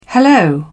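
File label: "hello", British English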